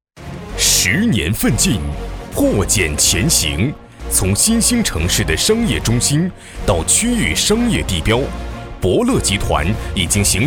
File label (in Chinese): 男国 宣传片 企业 集团宣传 大气